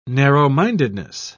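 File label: "narrow-mindedness", British English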